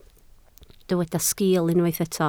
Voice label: "sgiil", Welsh